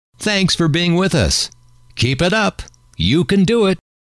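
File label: thanks For Being With Us Keep, male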